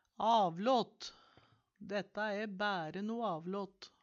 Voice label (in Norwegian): "avlåt", Numedalsmål